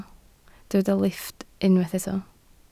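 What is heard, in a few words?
"lifft" Welsh, spoken word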